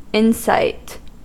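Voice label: "insight", American English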